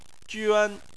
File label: "juan1", Chinese